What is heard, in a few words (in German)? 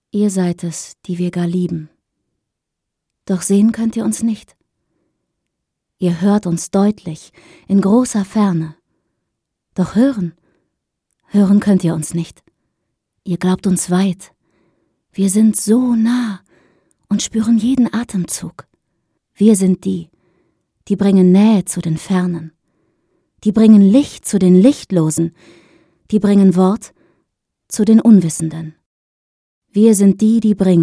poetisch